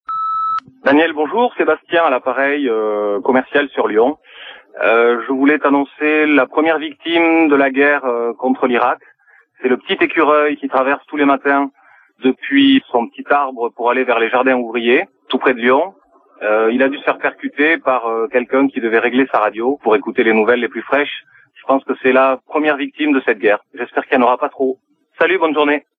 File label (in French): Irak repondeur